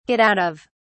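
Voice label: "get out of", British English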